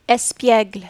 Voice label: "espiegle", French

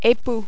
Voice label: "epoux", French

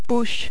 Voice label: "bouche", French

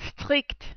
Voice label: "strict", French

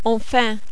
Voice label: "enfin", French

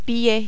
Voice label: "billet", French

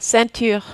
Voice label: "ceinture", French

